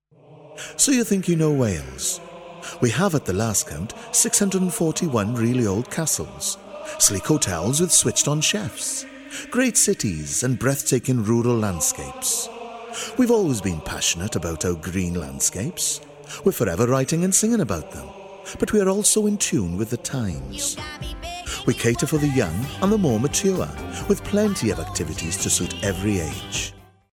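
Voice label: English, British, male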